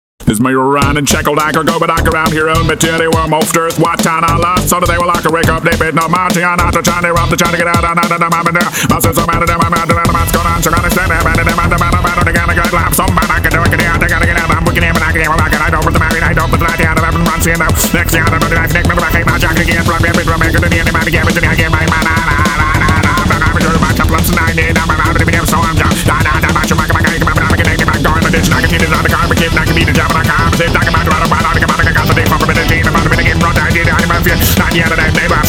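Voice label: gibberish auctioneering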